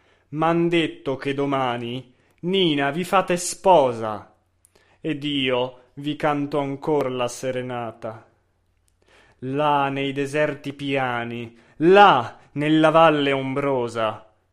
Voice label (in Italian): monotono